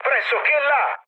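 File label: Italian near waypoint mood med, speech; War Thunder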